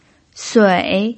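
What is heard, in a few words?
"sui", Mandarin Chinese pinyin, third tone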